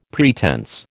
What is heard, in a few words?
"pretense", American